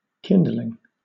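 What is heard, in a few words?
"kindling", British English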